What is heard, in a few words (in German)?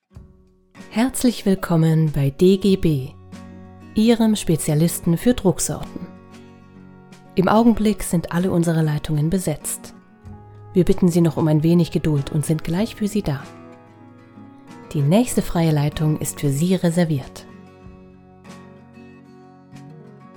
Telefonbandansage